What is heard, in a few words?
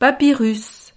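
"papyrus", French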